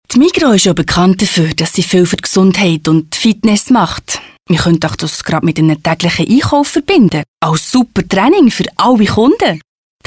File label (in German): Radio Spot Berner Dialekt; Migros